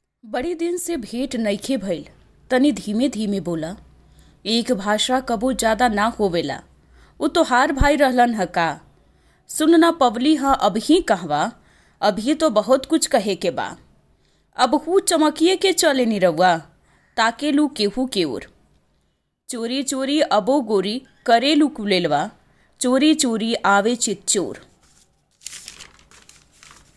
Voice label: Bhojpuri

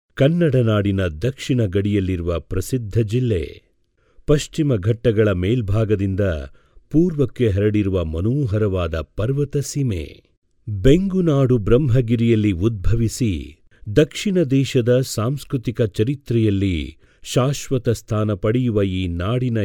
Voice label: Male Kannada VO; Kodagu

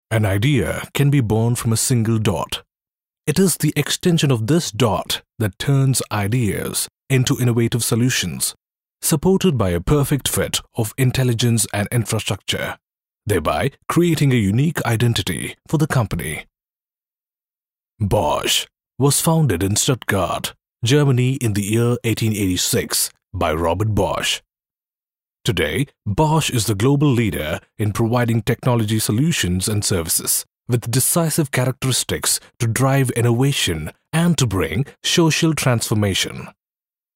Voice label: Male English VO

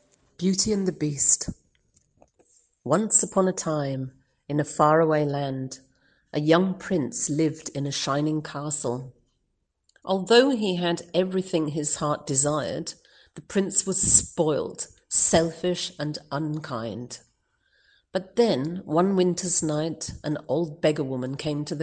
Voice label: Female English VO Sample; Beauty and The Beast

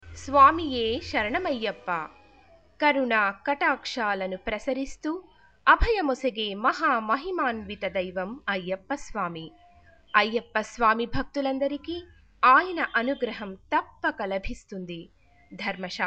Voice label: Female Telugu VO Sample

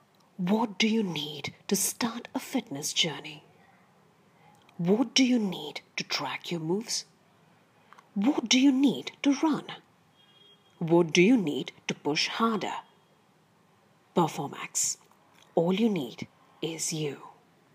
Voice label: Female English VO

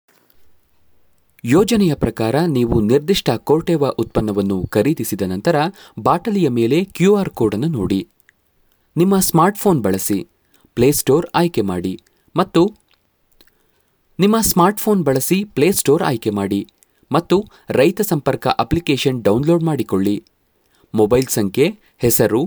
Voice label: Male VO Kannada

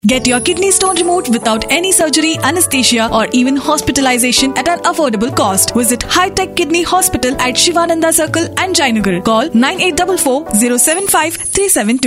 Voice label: Female English VO; Hitech hospitals

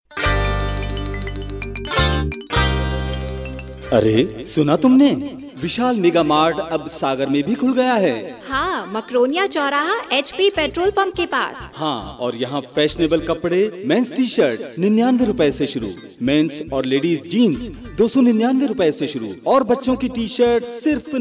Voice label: Male Hindi VO Sample TVC

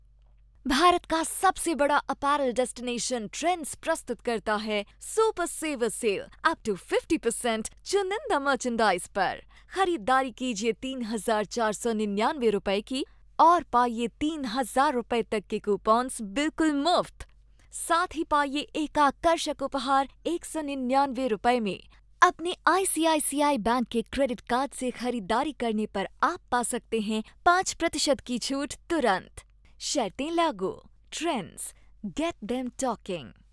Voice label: Hindi

